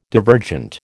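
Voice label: "divergent", American English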